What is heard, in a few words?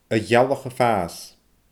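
"a ghealach a faas", Scottish Gaelic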